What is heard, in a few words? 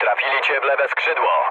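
left wing, mood low, Polish speech; War Thunder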